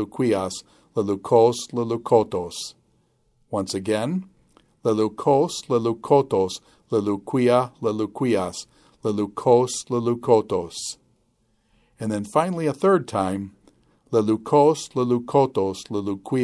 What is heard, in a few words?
Quick Chant Perfect Active Participles luw